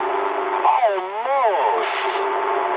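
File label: bomb failure mood high, speech English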